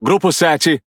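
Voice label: Speech Pilots Torpedo, Brazilian Portuguese; World Of Warships; PT BR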